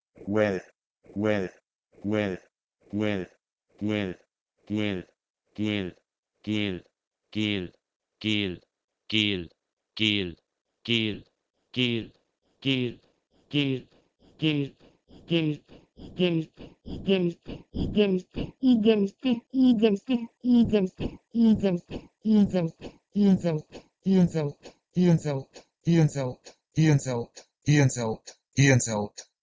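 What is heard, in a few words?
Proto-Indo-European gwelh1 to Latvian iedzelt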